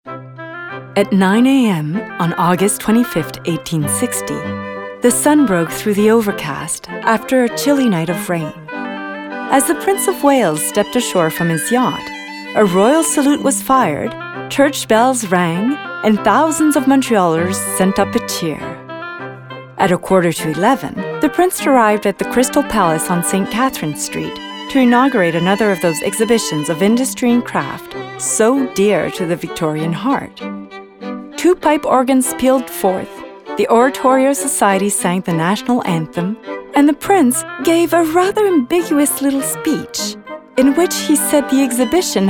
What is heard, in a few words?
English Narration